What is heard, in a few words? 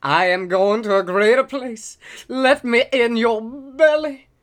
Male Beggings